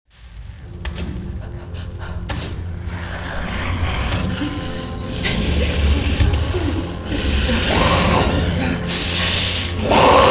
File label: Alien Creature Voice SCI FI